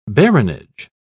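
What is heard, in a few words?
"baronage", British English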